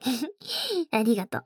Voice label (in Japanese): ふふっ、ありがとう♡, ASMR; 早期特典 アラーム音